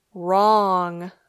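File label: "wrong", English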